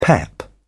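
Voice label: "pep", British English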